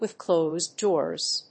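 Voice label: "with closed doors", English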